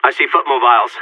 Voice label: radio enemy spotted, seal, player vo; Counter Strike; CS:GO

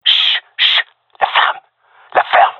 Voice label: request quiet, gendarmerie male

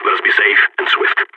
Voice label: radio lock n load, balkan; vo

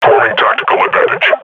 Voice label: combat idle, grunt

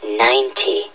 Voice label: "ninety", hev suit; Half Life Alyx

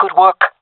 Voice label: compliment, fem; player vo jungle fem

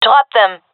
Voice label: attacking, gendarmerie fem; vo; agents

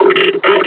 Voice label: announce attack grenade; combine charger; Half Life Alyx

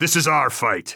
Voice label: radio lock n load; Counter Strike Model Pack; CS:GO; phoenix coop

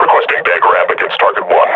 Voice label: player is hurt, grunt; Half Life Model Pack

